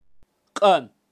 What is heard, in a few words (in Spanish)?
"qan", kaqchikel, pronunciacion de animales